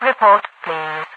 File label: report please, radiovoice; Garry's Mod; hl2; overwatch